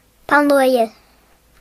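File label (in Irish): "pencil", Irish; gcompris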